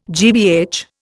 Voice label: "GBH", Indian